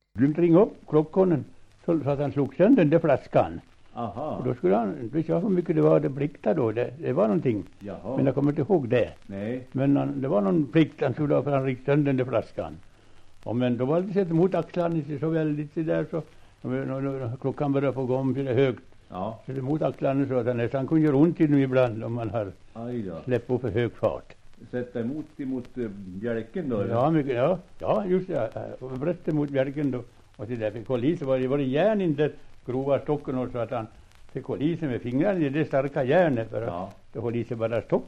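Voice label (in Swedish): Västerfärnebo Västmanland, Svenska sagor, dialekter; Folkminnen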